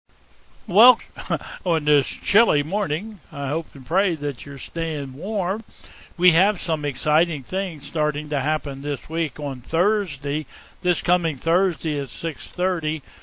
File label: Welcome and Announcements